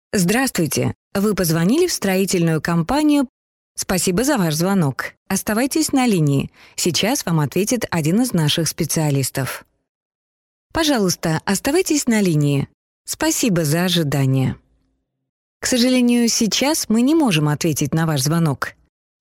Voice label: ivr